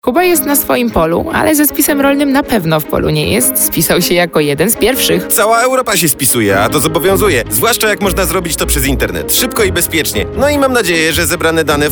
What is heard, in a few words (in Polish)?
spot radiowy; samospis przez internet